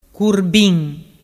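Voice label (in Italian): "curbin", Vernazzese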